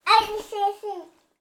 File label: Baby Talk